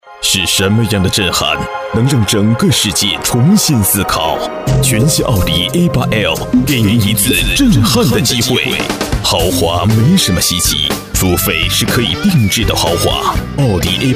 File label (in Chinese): 男 广告, 国语男声配音; 浑厚大气; 远方奥迪